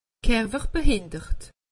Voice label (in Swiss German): "kerwerbehindert", alsace; medecine